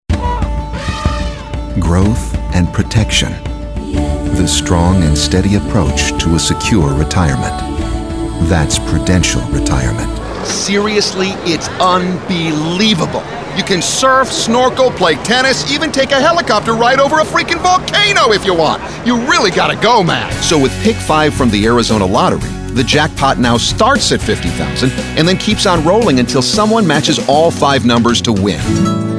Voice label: commercial, voice